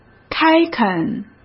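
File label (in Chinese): "开垦", Mandarin Chinese; hsk; chinese-flashcards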